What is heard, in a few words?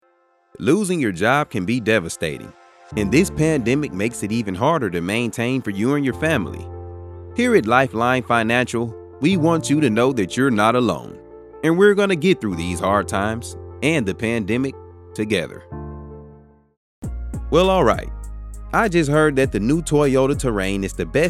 Voice W Music